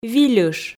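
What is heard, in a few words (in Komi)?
"вильыш", Komi, female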